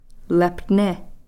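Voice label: "lephni", Koasati word for "winter"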